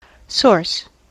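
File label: "source", US English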